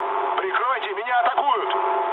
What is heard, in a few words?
aircraft damaged, mood low, speech ru